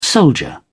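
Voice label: "soldier", English, British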